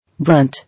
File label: "Brunt", English